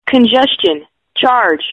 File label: Charge, American English female